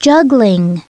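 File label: "juggling", English; SoftKey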